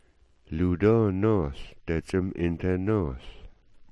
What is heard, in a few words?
"doo", smalgyax